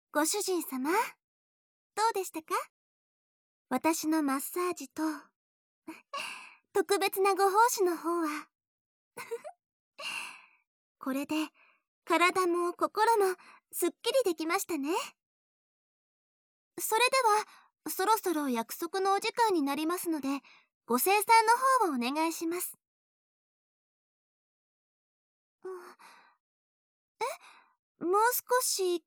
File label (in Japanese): くちゅ音無し; 延長サービス『裏オプション:授乳手コキ』